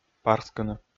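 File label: "парскыны", Komi, male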